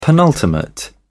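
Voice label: "penultimate", British English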